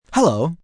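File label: "Hello" male; Greetings; VoiceBox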